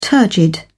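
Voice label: "turgid", British English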